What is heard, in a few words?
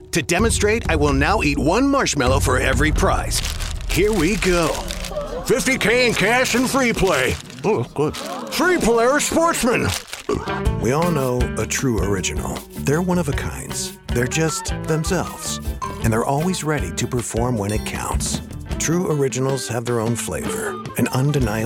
Commercial